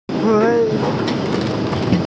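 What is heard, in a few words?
"gai1", Mandarin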